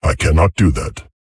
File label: Male Tauren, ERROR PLAY